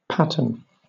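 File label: "pattern", British English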